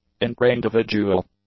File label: "intraindividual", english; adjectives